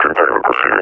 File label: reconnoiter start, officer; combine; Half Life Alyx; Half Life Model Pack